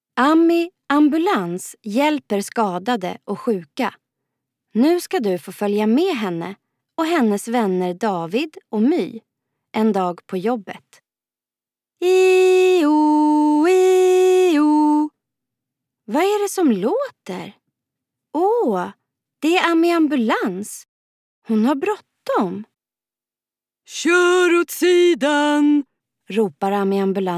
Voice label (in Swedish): inlasning ljudbok barnbok fantasy